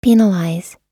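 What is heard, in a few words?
"penalize", American English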